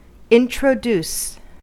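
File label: "introduce", American English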